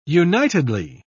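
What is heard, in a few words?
"unitedly", British English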